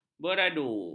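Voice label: "beradu", Malay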